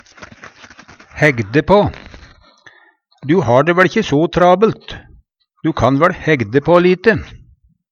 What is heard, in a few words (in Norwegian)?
hægde på, Numedalsmål; Du ha dæ væL ikkje so trabelt. Du kan væL hægde på lite.